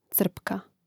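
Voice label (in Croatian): "crpka", Hrvatski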